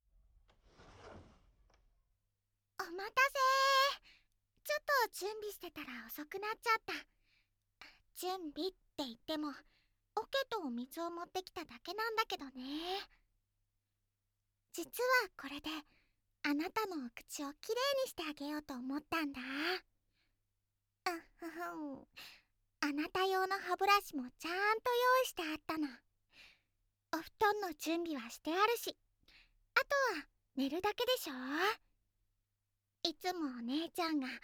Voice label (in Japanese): 繋がるって、ふわふわする（Voice）, ASMR